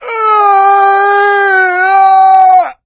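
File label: fall, voice, male; Left 4 Dead 2; coach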